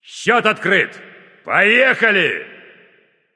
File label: kill streak first blood, russian; caster